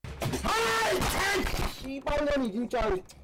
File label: korean guy raging